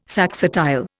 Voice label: "saxatile", Indian